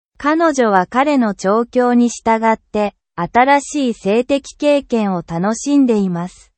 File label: sub training example sentence english audio